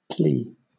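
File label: "plea", British English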